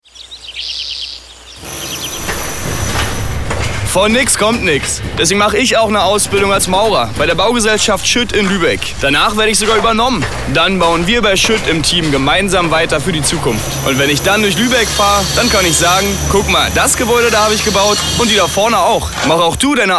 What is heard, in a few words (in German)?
Radiospot; Maurerazubis; schuett bau; jobangebote